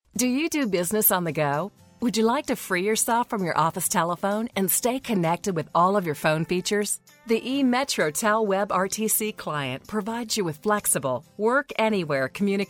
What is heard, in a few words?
narration